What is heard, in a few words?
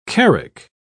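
"carrack", English, British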